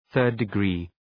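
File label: "third-degree", English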